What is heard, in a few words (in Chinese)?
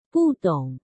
"不懂", 中国語; taiwandetukauchinese